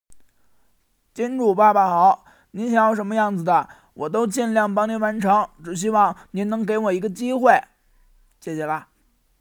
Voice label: 少年音

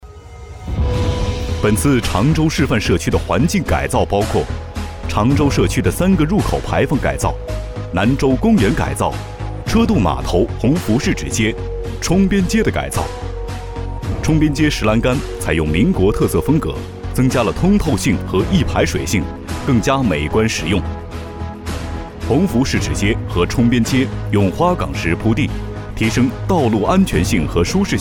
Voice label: 专题片 政务风格

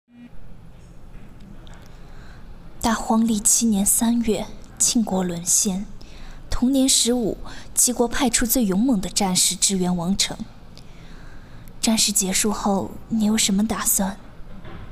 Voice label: 旁白

